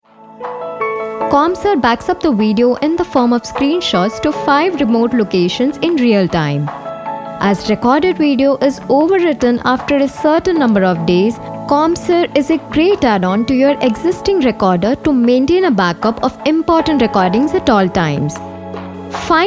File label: eng